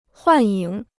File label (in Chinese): "幻影", Chinese